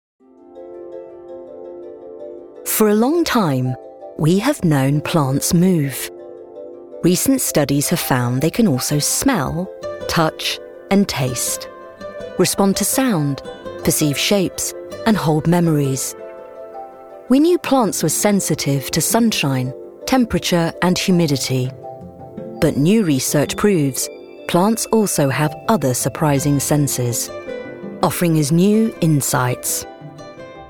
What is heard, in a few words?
Documentary; Inside Plants